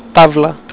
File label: "tabla", english